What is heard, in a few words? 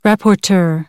"rapporteur", American English; ɔːr - ɔː